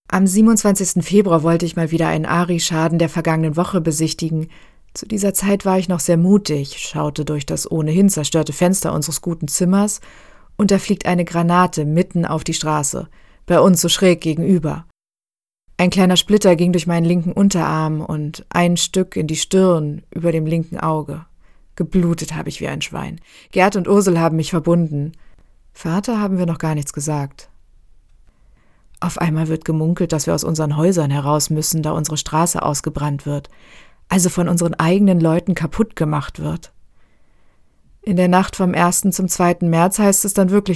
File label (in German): Sprachprobe Audioguide; Orlok; Tagebuch 2. Weltkrieg